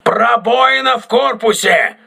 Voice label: voice message hull breach, crew ships russian

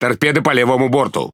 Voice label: Speech Alarms Torpedo Left, RU; World Of Warships

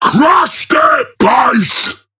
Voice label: Ork Quick Commands Enemy Base; Warhammer 40000

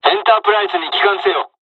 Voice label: evac, dialogs japanese; midway us; War Thunder

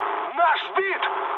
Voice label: aircraft destroyed, mood med, speech ru

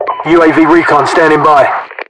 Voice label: uav recon, localized english, UK voiceovers; mpvoice

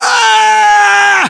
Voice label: melee charge, voiceovers english

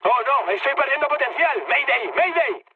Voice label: damaged engine, mood high, speech; War Thunder

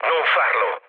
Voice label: bomb failure mood low, speech it; War Thunder

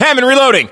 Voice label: inform reloading, US

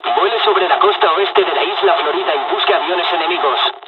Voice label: waypoint fly, dialogs spanish; War Thunder

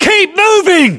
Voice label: voiceovers UK; ModernWarfare; localized english; Cargoship

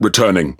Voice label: Speech Pilots Bomber Land, EN GB; World Of Warships

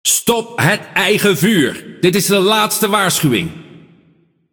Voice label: Speech Friendly Fire Hit Alert, NL; World Of Warships

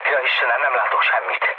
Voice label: searchlite, mood low, speech hun; War Thunder

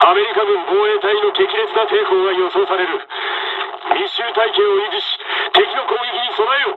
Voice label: dialog, dialogs japanese; War Thunder

